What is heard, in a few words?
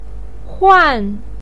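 "huan", Mandarin pinyin, fourth tone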